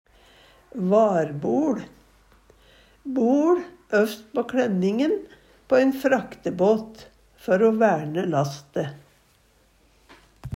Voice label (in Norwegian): varboL, Numedalsmål; BoL øvst på kledningen på ein fraktebåt, før å værne laste.; Substantiv inkjekjønn; Jakt, fangst, fiske; Handverk (metall, tre, lær)